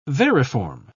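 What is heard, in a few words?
"variform", British English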